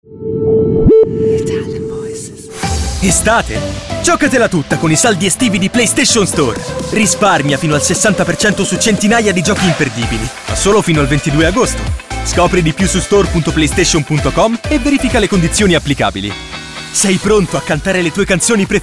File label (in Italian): ITALIAN VOICES Fresh Up beat, male voices